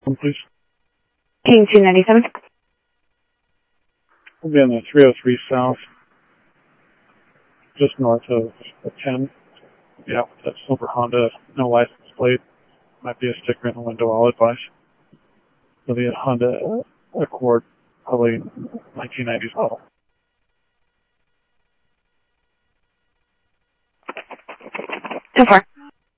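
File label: Sheriff West County, scanner audio trunk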